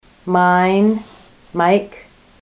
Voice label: mine, ontario